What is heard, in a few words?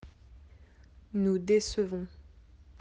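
"décevoir", French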